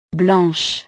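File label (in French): "blanche", French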